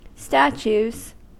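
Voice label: "statues", US English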